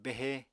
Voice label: "behe", Apatani

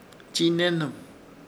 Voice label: "Chiinehnɨm", Ishir Chamacoco; calumnar o triticar

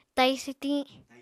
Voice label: "tai swtiñ", Apatani

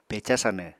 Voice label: "peca sanw", Apatani

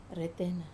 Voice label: "retena", first, wamesa

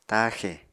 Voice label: "taaxè", Apatani

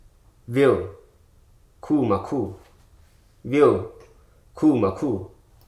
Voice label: "imaginary", Hruso

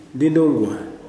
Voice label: "yellow", Kuman

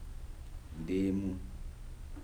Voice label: "lemon", Kibembe

